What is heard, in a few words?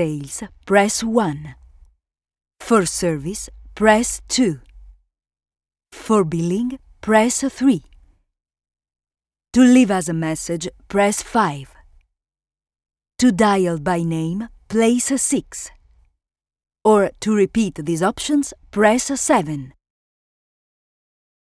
English with italian accent